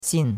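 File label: "xin4", Mandarin Chinese; Cours-de-Chinois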